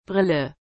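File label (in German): "Brille", German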